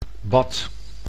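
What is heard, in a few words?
"bad", Dutch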